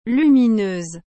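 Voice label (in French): "lumineuse", French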